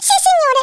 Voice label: "yes sir", Italian; Worms Armageddon